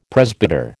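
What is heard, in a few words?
"presbyter", American English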